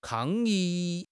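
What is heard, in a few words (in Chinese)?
"抗议", Chinese; HSK; HSK6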